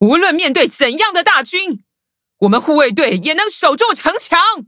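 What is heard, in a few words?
level up, Traditional Chinese; cookie run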